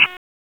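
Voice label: Police Northeast District Tactical 1, p25; Raleigh; wake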